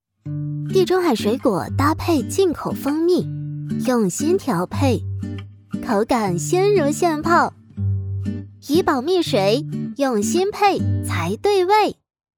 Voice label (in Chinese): 广告轻松活泼; 怡宝蜜水广告